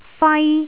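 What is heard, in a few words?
"fai1", Cantonese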